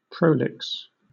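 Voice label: "prolix", British English; pronunciations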